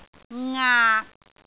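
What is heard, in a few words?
"ngaak6", Cantonese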